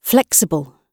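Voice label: "flexible", British English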